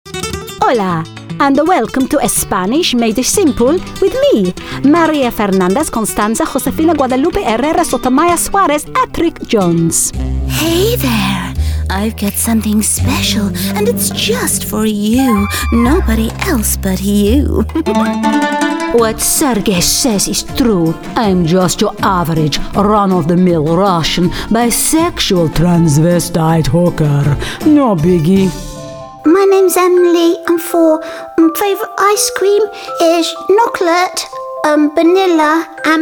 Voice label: INTERNATIONAL ACCENTS